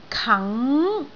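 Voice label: "kang2", Cantonese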